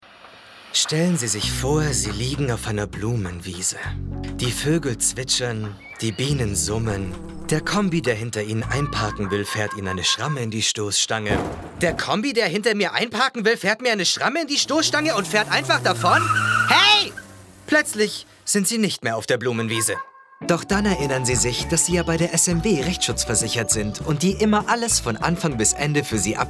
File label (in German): DE Werbung Versicherung